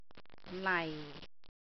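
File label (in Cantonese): "lai4", Cantonese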